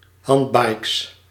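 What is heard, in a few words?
"handbikes", Dutch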